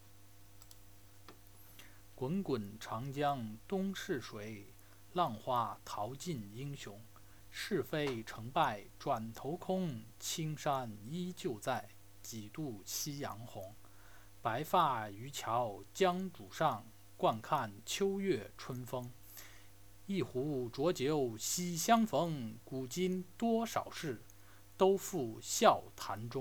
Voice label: Chinese Poem

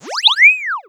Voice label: Ai Yes

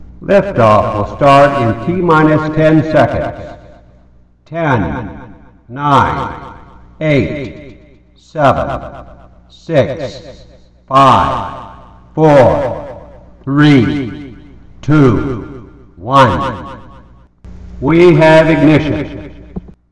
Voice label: 10 Sec Countdown With Echo

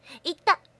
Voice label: Hurt It Hurts

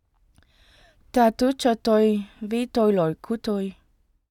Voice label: Vietnamese Woman Talking

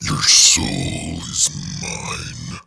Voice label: Demon Speaking Your Soul Is Mine

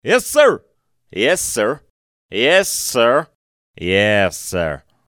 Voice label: Yes Sir Male Confirmation

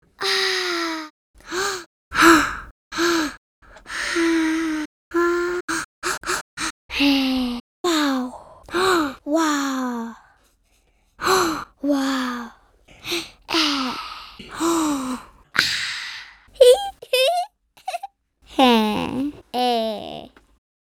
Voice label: Wow Kids Reactions

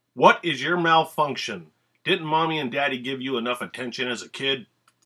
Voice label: Drill Sargent

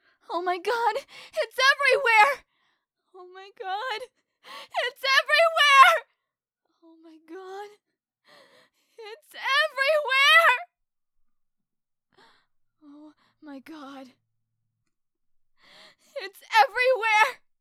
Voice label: Scared; Omg Its Everywhere

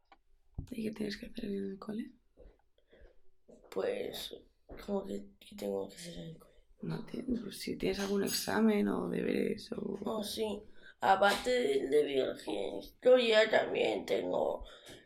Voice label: Conversation

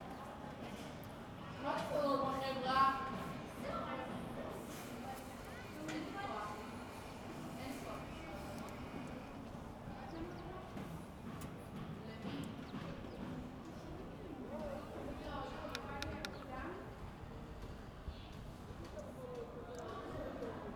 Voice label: Roomtone Kids Talking

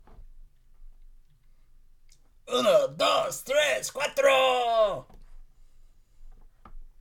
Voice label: Uno Dos Tres Cuatro Counting Male Spanish

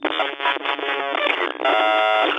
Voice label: Robot Speaking

